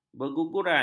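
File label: "berguguran", Malay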